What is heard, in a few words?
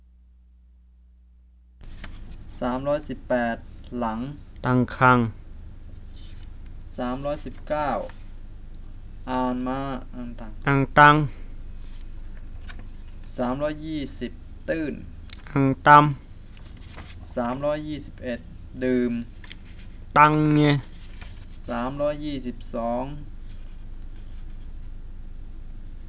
Bisu or Misu Wordlist